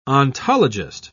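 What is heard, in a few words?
"ontologist", British English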